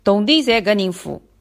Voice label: pay shanghainese